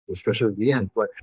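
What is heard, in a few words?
Voice Acting es M, Spanish, male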